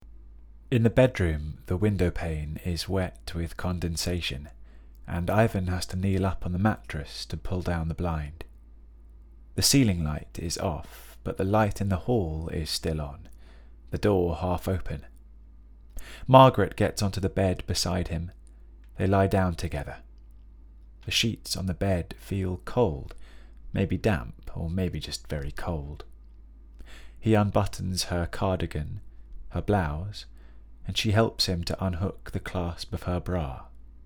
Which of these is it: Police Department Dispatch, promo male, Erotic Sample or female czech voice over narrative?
Erotic Sample